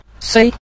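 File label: "sui", Mandarin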